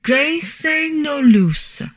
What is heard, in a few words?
humanoid portrait female; Stellaris; humanoids